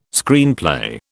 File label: "Screenplay", British